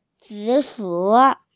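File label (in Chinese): "zi3shu3", Mandarin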